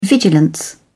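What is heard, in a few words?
"vigilance", British English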